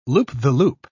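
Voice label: "loop-the-loop", British English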